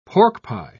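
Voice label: "porkpie", British English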